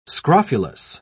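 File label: "scrofulous", British English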